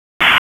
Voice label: EMS ALERT, p25; wake